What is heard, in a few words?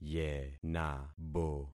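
"yenabo", concatenated syllables; Affixing experiment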